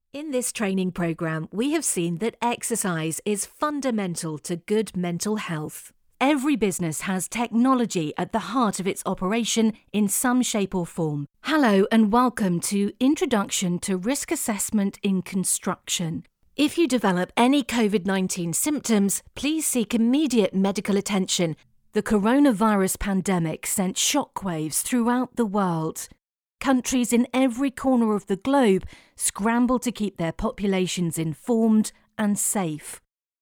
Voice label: Elearning

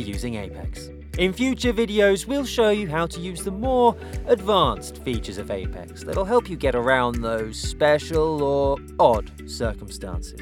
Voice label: Narrative Reel

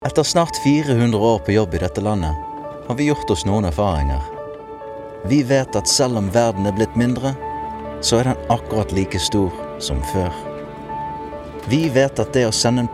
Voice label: Norwegian

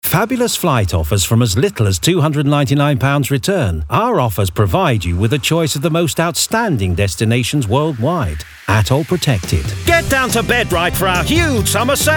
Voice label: Commercial Showreel

